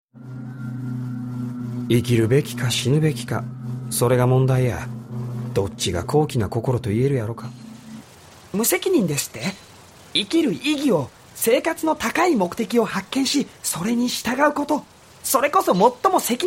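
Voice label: Japanese, Characters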